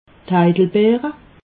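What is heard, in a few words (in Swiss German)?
"heidelbeere", Alsatian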